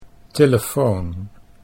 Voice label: "telefoon", Dutch